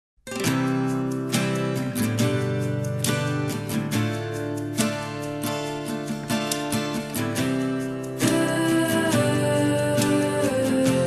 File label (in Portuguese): ANIV ROMANTICO NEUTRO FEM